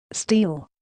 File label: "steal" verb